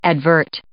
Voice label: "advert", English